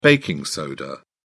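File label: "baking soda", British English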